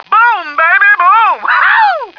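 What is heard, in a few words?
boom baby, male; stars; taunts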